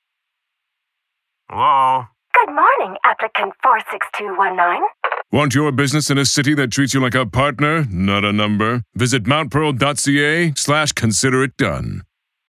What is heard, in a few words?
Radio Processing